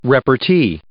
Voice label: "repartee", English

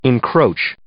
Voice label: "encroach", English

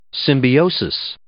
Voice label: "symbiosis", English

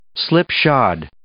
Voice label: "slipshod", English

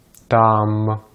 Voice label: "там", Russian

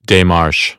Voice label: "démarche", American; AmericanBritish

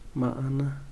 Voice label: "sepultar", Yaqui; Vocabulary

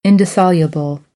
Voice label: "indissoluble", American; ɑː - ɒ

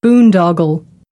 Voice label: "boondoggle", American English; ɑː - ɒ

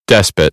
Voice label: "despot", American; AmericanBritish

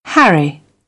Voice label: "harry", British; AmericanBritish

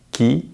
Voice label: "qui", French; Vocales orales en francés; easypronunciation

